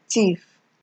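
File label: "thief", Nigerian Pidgin, female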